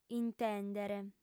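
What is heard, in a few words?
"intèndere", female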